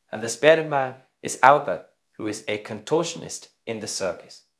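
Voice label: Voice Acting, Spanish, male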